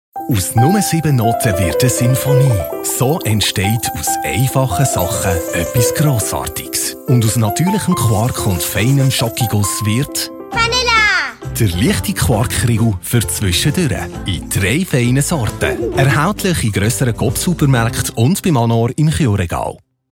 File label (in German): Fernsehspot in Berndeutsch; Quarkriegel Quanilla